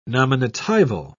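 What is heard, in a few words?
"nominatival", British English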